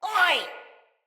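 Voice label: Attack Corrupted Sprouts, en; Battle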